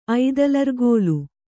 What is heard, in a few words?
"ಐದಲರ್ಗೋಲು", kannada